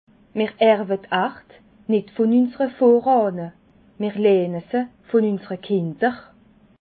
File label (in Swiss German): "arda", alsace